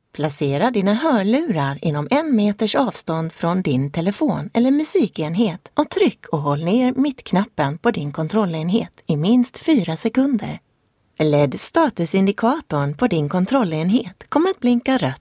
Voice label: swedish corporate explainer